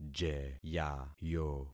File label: "jeyayo", concat syllables; Affixing experiment